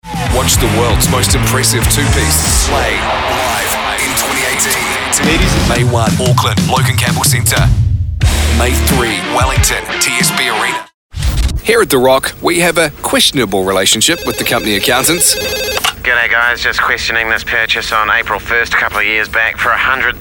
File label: Voiceover Sample